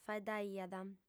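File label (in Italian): "faeddaiat", lingua sarda, female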